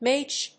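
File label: "midge", English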